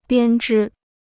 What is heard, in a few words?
"编织", Chinese